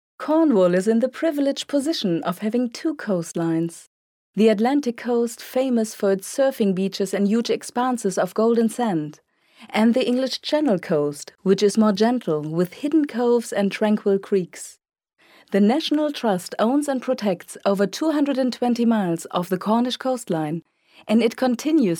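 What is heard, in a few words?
German female voice over, English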